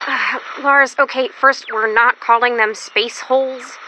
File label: Sigh Okay; Plankerton; Lars